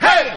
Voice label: "hey", voice

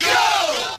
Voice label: "yo", group of men; ooh ahh

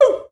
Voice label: "ooh" female

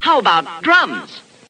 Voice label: "how about drums", voice; questions

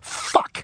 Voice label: "fuck", expletives

